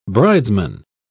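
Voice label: "bridesman", English, British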